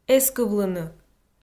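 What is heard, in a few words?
"эскывлыны", Komi, female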